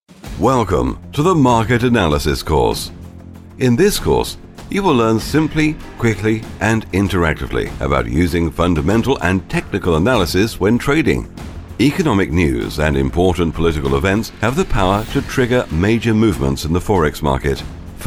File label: factual audiobook excerpts, uk voiceover